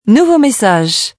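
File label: New Message, French, voice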